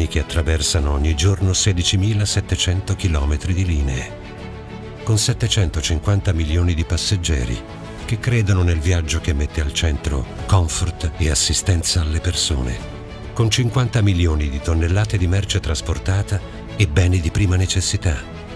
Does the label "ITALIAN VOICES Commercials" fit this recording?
Yes